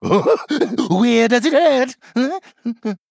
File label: Male Troll Play